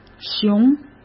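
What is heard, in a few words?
"熊", Mandarin Chinese; chinese flashcards; hsk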